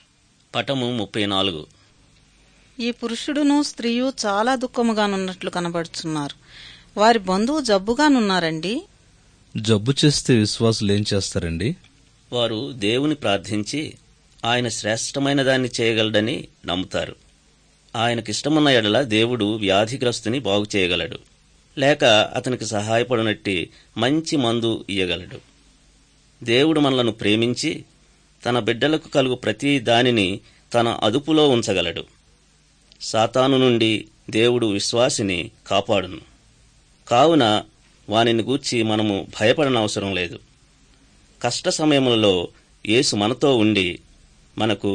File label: good news telugu